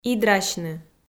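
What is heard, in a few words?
"идрасьны", Komi, female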